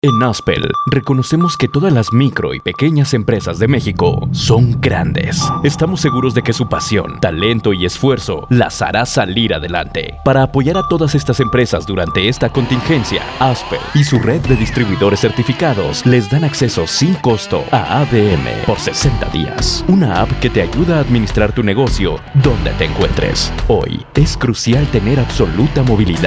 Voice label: spanish latin american